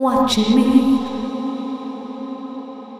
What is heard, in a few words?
VOICE FX, Drum Bass, 160; eJay